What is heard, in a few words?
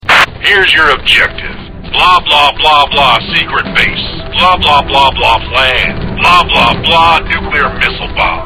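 us army briefing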